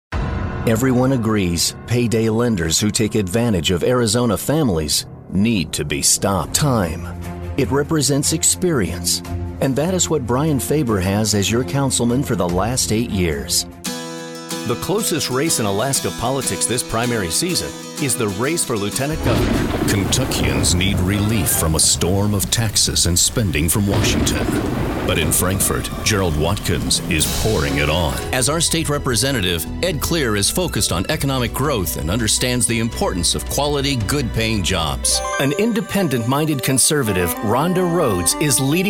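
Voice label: us political, American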